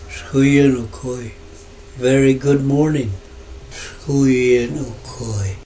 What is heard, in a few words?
yurok language phrase